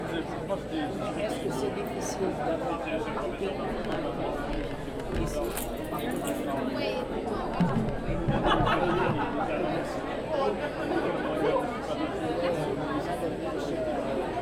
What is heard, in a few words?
French